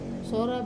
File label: "sora", wear, wamesa